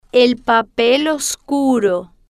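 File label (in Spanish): el papel oscuro, Spanish, female, slow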